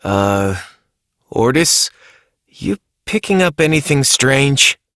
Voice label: Operator Voice, en; New War; Ascend Tower